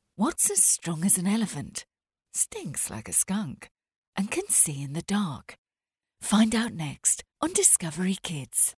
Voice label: Kids Promo